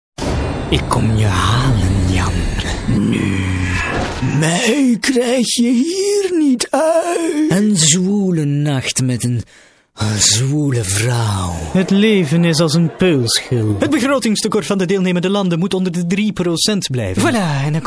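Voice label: flemish male character; dutch; voice demos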